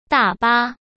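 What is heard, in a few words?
"大巴", Mandarin Chinese; hsk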